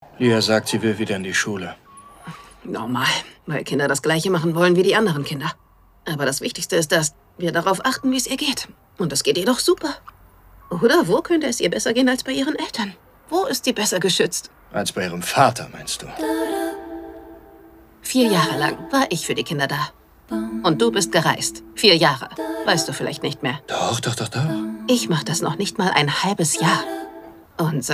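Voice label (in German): Synchron, Lip-Sync (Synchron), German; SYNCHRON | Barcelona for Beginners – Familie und andere Katastrophen | Aina Clotet; Paarkonflikte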